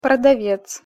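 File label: "prodavec", Russian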